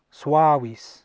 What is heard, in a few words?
"suavis", Latin